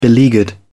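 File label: "beleaguered", British English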